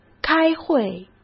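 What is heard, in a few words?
"开会", Mandarin Chinese; hsk; chinese-flashcards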